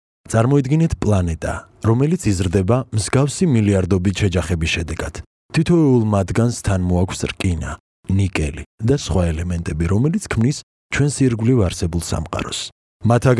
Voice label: Georgian male